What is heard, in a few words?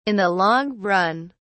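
"in the long run", British English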